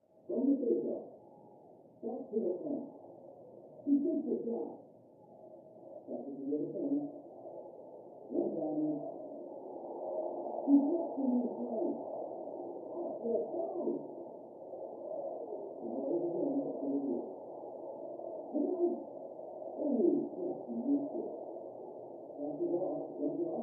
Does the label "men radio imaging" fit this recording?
No